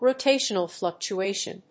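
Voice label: "ROTATIONAL FLUCTUATION", English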